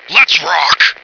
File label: lets rock, male